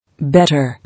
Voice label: "Better", English